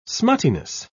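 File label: "smuttiness", British English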